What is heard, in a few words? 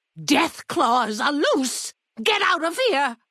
female old greeting; Fnv